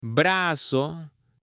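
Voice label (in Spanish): "BRAZO" Spanish; CUERPO; AppLenguaje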